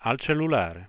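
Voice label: ready to dial mobile phone for, Italian, Voice Sounds; Samsung SGH-i310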